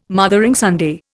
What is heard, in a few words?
"Mothering Sunday", Indian